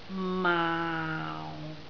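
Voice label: "maau6", Cantonese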